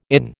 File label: "inn", American